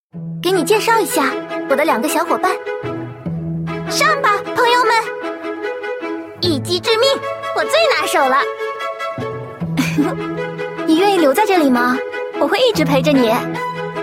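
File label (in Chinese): female, shaonv (young girl)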